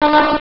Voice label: hello 9600hz output; python pydub fft